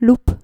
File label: "loep", Dutch